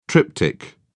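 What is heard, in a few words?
"triptych", British English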